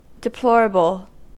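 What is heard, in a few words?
"deplorable", American English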